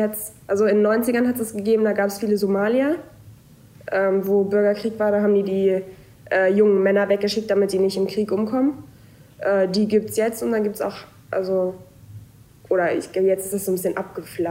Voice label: finnisch schwedisch finnland

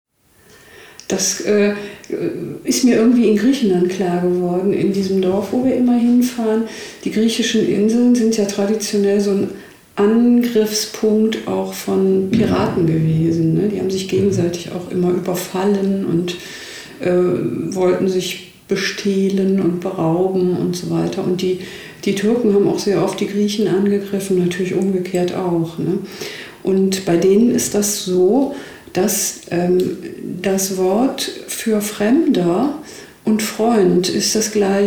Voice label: deutsch

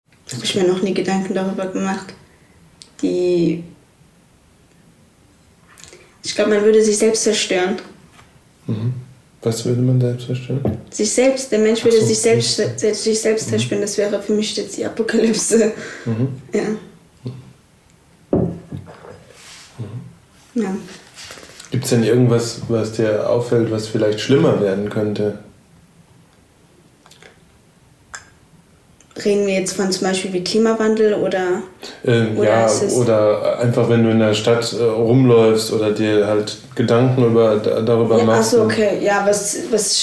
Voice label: kurdisch

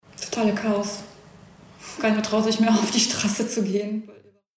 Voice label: polnisch

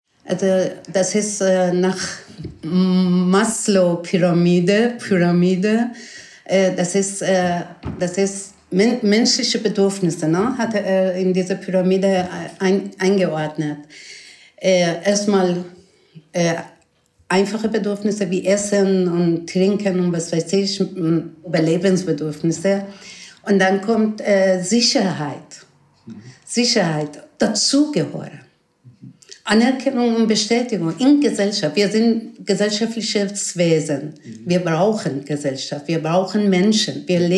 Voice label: persisch

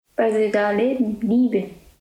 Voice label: japanisch

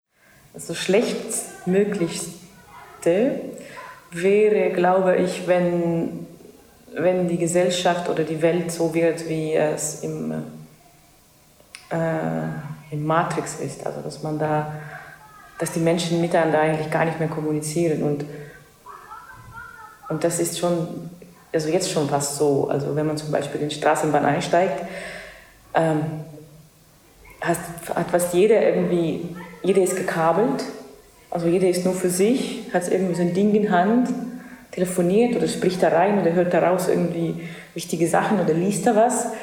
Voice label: estnisch

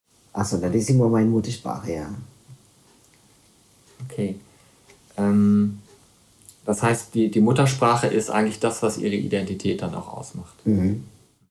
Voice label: tamilisch sri lanka

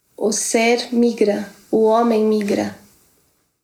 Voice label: portogiesisch brasilien

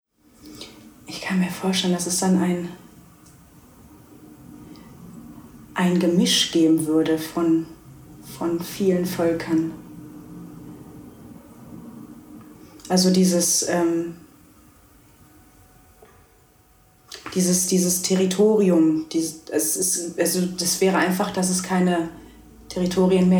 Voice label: tigrinya eritrea

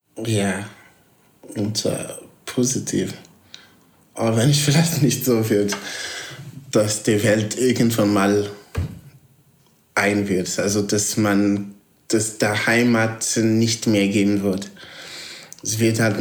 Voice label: portugiesisch mosambik

